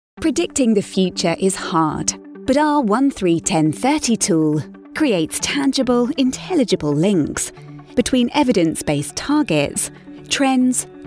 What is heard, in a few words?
explainer, British Voiceover Artist